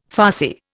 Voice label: "farcy", Indian